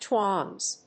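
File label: "twangs", English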